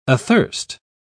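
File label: "athirst", English, uk British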